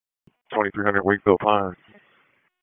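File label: Fire Department HQ Dispatch; RFD; wake; p25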